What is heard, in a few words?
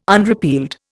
"unrepealed", Indian